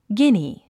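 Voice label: "guinea", English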